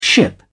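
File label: "ship", British English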